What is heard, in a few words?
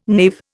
"knave", Indian